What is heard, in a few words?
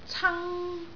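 "cang1", Cantonese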